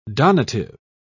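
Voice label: "donative", British English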